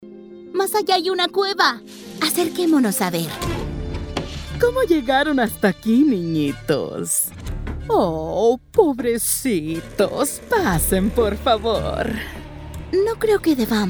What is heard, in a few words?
Character Narration Spanish